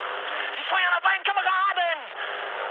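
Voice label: German player friendly fire mood high, speech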